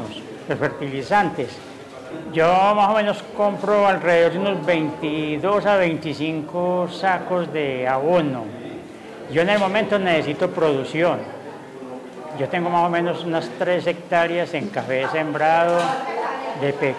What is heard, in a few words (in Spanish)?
Voz caficultor de Cordoba